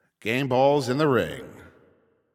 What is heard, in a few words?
Ball Placed in Start Ring, Announcer